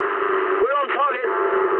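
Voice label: English near waypoint mood medium; War Thunder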